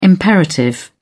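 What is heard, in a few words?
"imperative", British English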